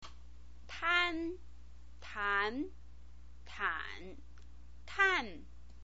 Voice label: "tan", chinese pinyin

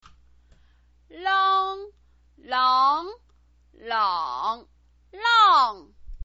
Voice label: "lang", chinese pinyin

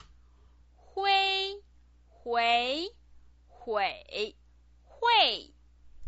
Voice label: "hui", Chinese pinyin

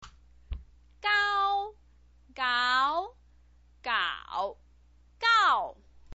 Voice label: "gao", chinese pinyin

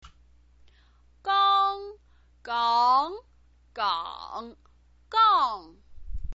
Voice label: "gang", chinese pinyin

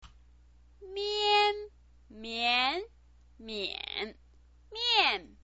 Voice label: "mian", chinese pinyin

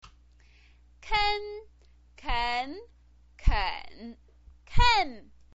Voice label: "ken", Chinese pinyin

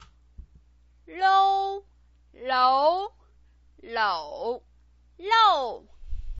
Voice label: "lou", Chinese pinyin

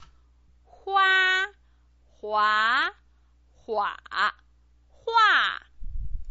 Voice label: "hua", Chinese pinyin

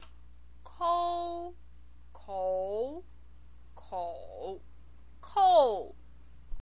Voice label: "kou", Chinese pinyin

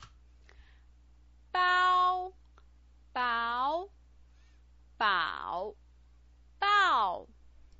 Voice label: "bao", pinyin

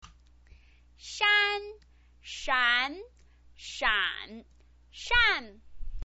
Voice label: "shan", Chinese pinyin

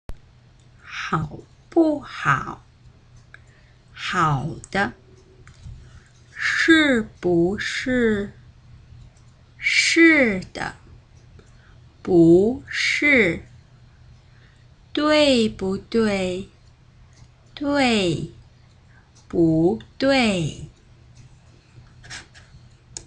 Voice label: huihua, chinese

